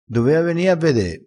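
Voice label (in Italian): "dovea", dialettale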